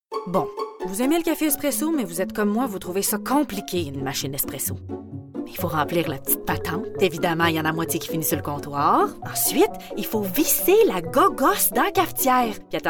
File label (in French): PUB, Contrariée - Humoristique - Québécois naturel; Nespresso